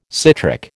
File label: "citric", American